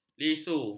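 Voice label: "lisu", Malay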